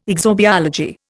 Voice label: "exobiology", Indian